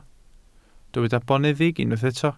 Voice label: "boneddig", welsh

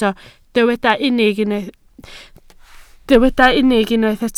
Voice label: "unig", Welsh

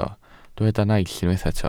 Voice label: "naill", welsh

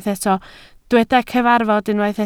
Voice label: "cyfarfod", Welsh

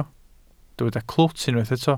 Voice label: "clwt", Welsh

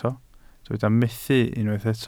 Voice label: "methu", Welsh

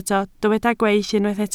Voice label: "gweill", Welsh

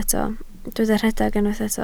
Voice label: "rhedeg", Welsh

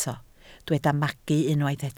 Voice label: "magu", Welsh

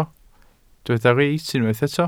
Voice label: "reit", Welsh

